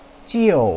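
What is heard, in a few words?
"jiu4", Mandarin Chinese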